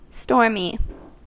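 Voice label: "stormy", US English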